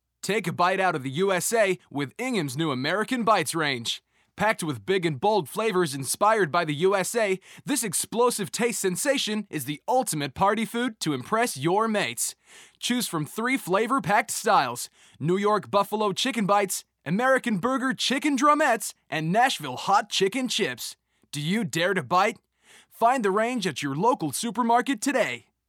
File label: Standard American Read